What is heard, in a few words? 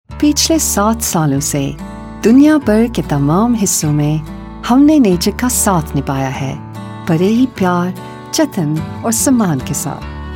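Hindi General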